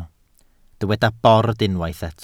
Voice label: "bord", Welsh